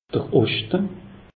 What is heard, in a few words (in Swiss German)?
"oschte", alsace; meteo